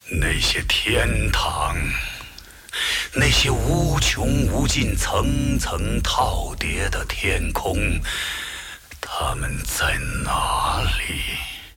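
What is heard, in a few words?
move, Chinese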